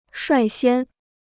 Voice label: "率先", Chinese

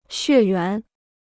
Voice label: "血缘", Chinese

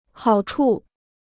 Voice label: "好处", Chinese; hǎo chu; benefit; advantage; merit; gain; profit

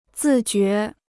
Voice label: "自觉", Chinese

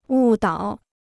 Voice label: "误导", Chinese

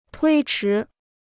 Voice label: "推迟", Chinese

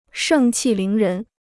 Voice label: "盛气凌人", Chinese

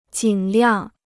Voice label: "尽量", Chinese

